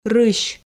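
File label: "рысь", Komi, female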